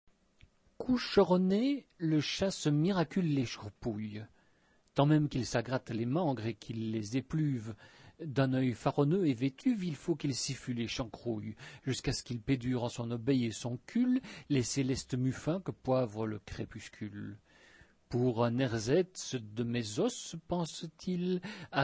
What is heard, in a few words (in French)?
mots souffles